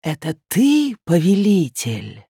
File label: Female Human Play